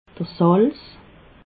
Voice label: "salz", alsace

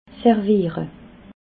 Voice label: "serviere", alsace

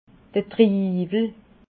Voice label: "triwel", alsace

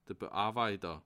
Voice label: "bearweiter", alsace

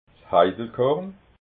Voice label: "heidelkorn", alsace

